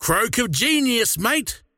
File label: croak big; dota; largo